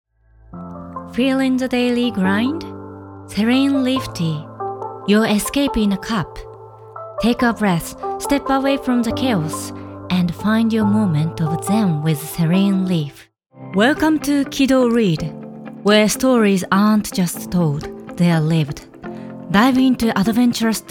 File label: English Voice samples